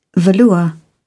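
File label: "velour", British English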